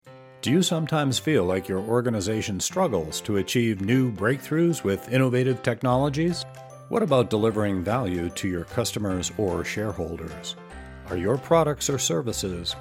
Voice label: Corporate Examples, Corporate/Business Promotions